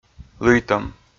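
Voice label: "лыйтӧм", Komi, male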